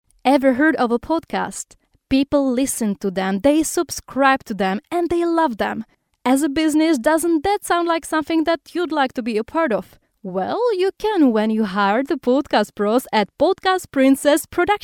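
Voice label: Czech English F Ad, female, accent of Czech Republic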